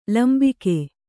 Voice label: "ಲಂಬಿಕೆ", Kannada; lambike; noun; the epiglotis.; the lobe.

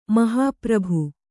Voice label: "ಮಹಾಪ್ರಭು", Kannada

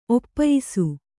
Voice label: "ಒಪ್ಪಯಿಸು", Kannada

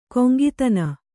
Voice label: "ಕೊಂಗಿತನ", Kannada

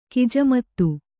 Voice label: "ಖಿಜಮತ್ತು", Kannada

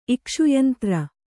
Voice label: "ಇಕ್ಷುಯಂತ್ರ", Kannada

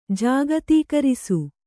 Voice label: "ಜಾಗತೀಕರಿಸು", Kannada

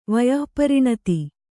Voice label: "ವಯಃಪರಿಣತ", Kannada

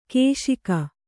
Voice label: "ಘೋಷಕ", Kannada

